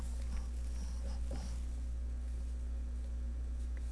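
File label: "pencil", spoken word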